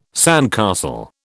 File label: "sandcastle", British